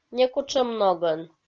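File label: "некутшӧм ногӧн", Komi-Zyrian, female; kpv audio dict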